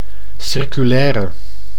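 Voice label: "circulaire", Dutch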